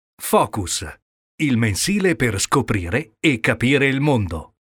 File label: spot radio; focus